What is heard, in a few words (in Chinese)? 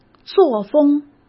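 "作风", Mandarin Chinese; hsk; chinese-flashcards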